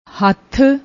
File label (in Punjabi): "hath", Punjabi; ਹੱਥ